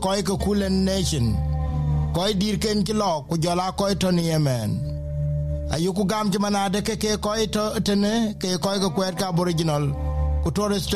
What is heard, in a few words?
dinka, podcast; SBS Dinka News 30/11/2022; Dan Andrews now becomes just the fifth Victorian premier to serve 3000 days in office.